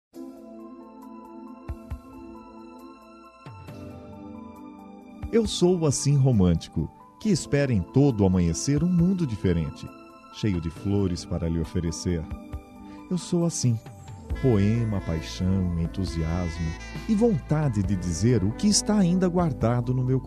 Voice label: Telemensagem - Conquista M; Romantica

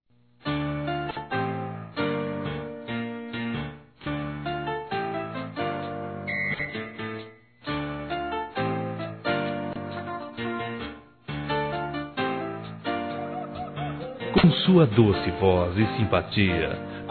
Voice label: CANTORA M; Homenagens; masc

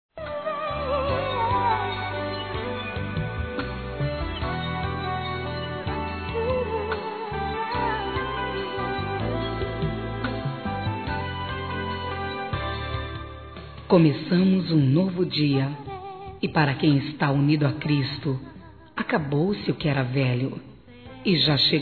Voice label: ANIV. GERAL, Gospel, fem; Telemensagens; Telemensagens 2017

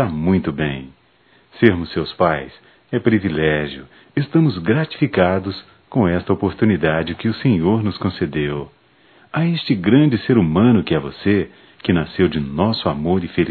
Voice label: Ano novo para filhos, masc; Natal e ano novo; Telemensagens 2017

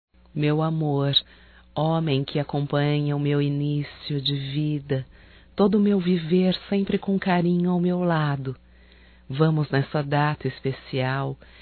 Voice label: NATAL ANO NOVO ROMANTICO (F); Telemensagens 2017

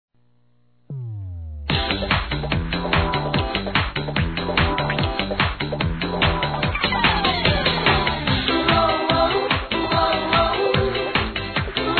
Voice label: Aniversário Afilhada Masculino; Telemensagens 2017; Aniversários; Aniversário Infantil

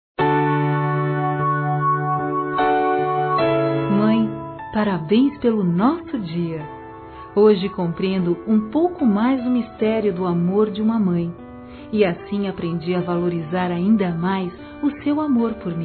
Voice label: FILHA QUE É MÃE P MÃE F, Telemensagens, Dia das Mães; Telemensagens 2017

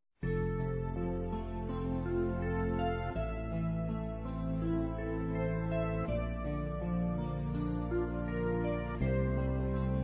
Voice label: MÃE EVANGÉLICO DUAS VOZES; Dia das Mães; Masc; Telemensagens 2017

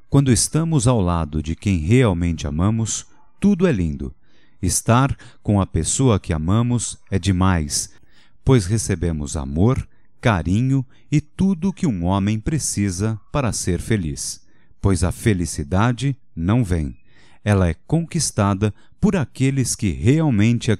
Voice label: Caminhos Feminino, Telemensagens, Só Voz; Telemensagens 2017

